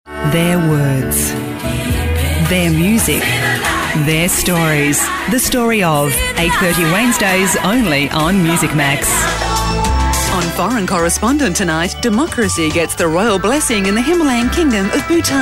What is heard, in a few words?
voice over